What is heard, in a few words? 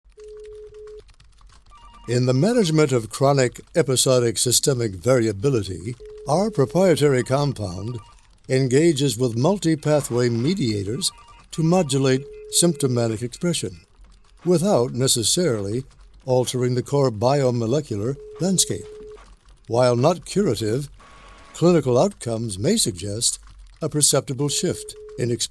MEDICAL FARCE NARRATION